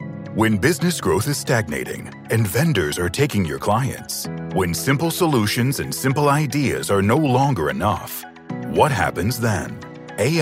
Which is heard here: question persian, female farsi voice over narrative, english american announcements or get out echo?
english american announcements